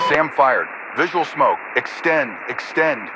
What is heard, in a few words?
Radio pilot Warning SAM Launch